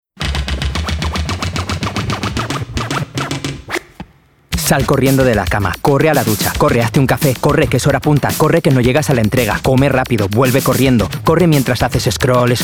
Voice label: Commercial, Bright, Upbeat, Conversational, Spanish; Chanel